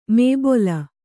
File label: "ಮೇಬೊಲ", Kannada